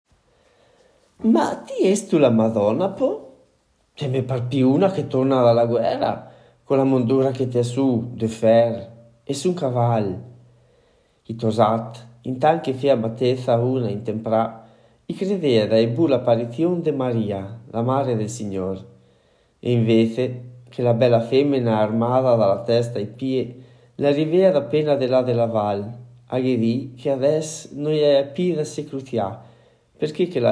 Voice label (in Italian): Voltago, Voltago Agordino, M; Stimolo 3 - Voltago Agordino (M, 1975)